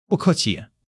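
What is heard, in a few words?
"不客气", Chinese; HSK1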